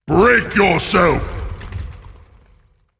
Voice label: Male Elemental Attack